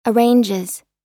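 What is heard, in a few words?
"arranges" US English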